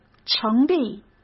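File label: "成立", Mandarin Chinese; hsk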